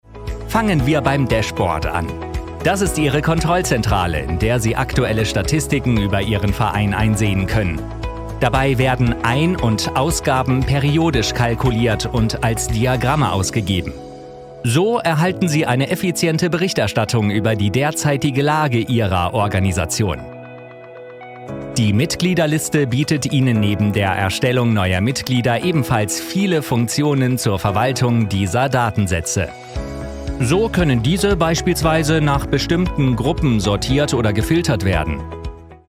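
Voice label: German Voice Over motivation energetic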